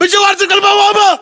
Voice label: landmark near gate, localized english battlechatter